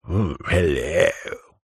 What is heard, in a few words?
human male posh english saucy says ohh hello